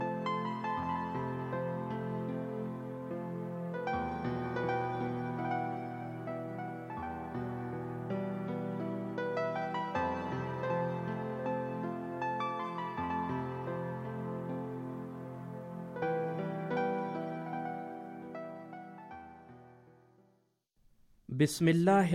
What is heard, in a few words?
Pashto